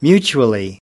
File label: "mutually", us